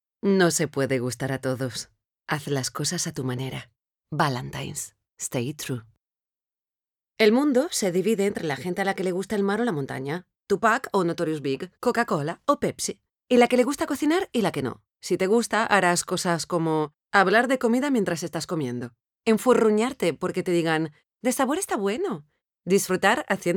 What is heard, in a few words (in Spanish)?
locucion espanol